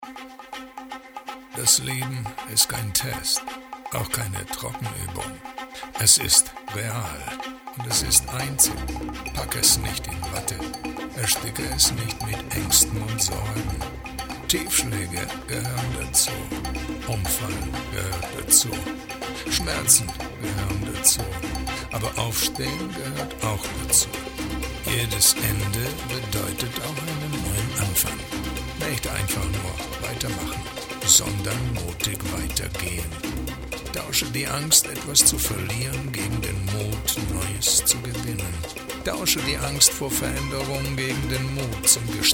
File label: COMMERCIAL GERMAN